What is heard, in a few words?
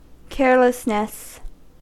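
"carelessness" English, American accent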